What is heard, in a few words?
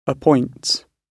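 "appoints" British English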